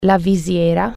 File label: visor, italian